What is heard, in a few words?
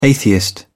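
"atheist", English, uk British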